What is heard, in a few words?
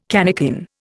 "cannikin", Indian